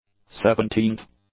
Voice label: "seventeenth", English; adjectives